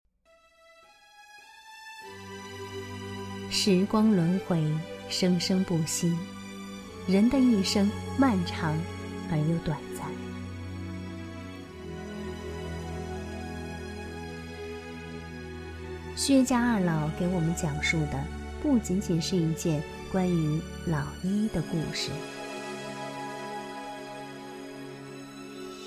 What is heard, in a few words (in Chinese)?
女 纪录片; 布衣百姓